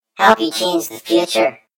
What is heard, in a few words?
story voiceover; yumpod